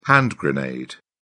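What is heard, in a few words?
"hand grenade", British English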